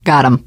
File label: kill confirmation, teengirl, voice; Left 4 Dead 2